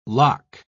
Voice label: "lock", pronounce